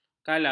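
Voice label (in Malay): "kala", Malay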